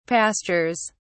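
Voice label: "pastures", British English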